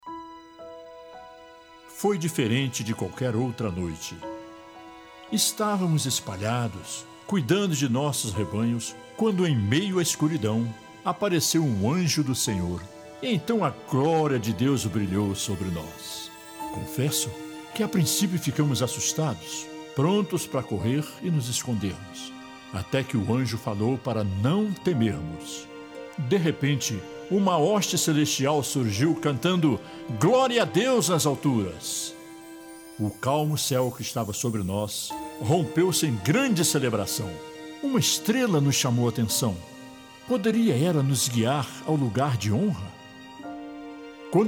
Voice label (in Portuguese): Narracao pastor de ovelhas